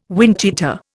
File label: "windcheater", Indian